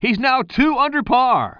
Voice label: commentator